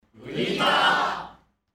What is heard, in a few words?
logovoice; WolfRPGEditor